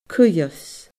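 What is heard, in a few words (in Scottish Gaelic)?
"cuibheas", Scottish Gaelic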